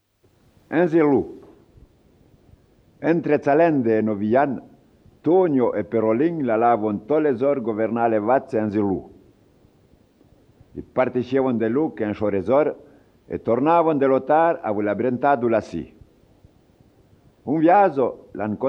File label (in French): patois de St Luc Anniviers histoire; patois valaisans; Le mort se portait bien